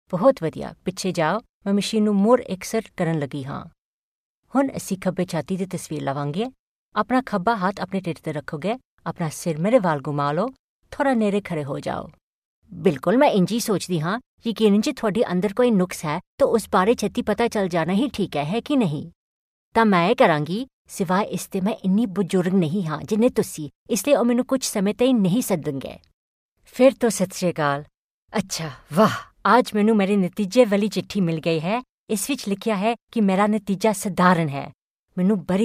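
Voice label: Punjabi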